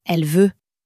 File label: "elle veut", common french words